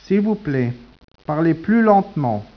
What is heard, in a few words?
speech, French